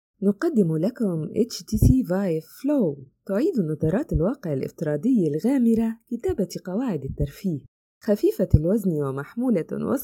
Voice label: arabic video games

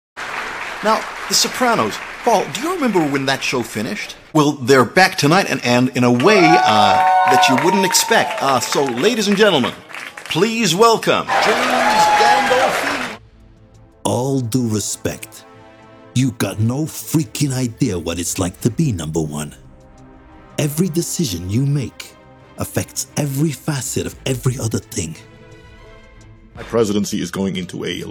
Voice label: english british impersonations

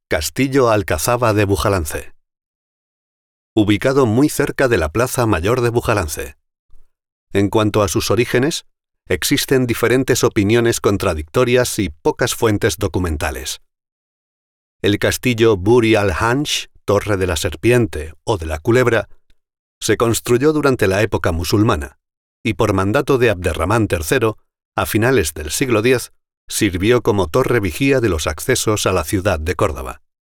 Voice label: spanish audio guides

